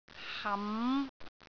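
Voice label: "ham5", Cantonese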